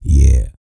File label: E SPEECH, Vocal Planet R&B Dance